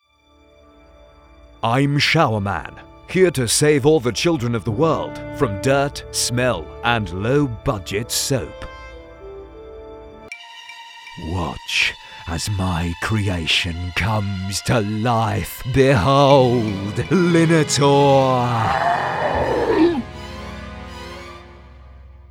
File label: Cartoon UK